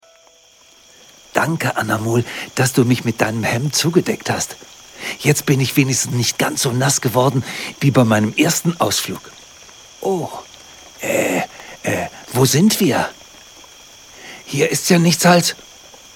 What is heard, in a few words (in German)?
Hoerspiel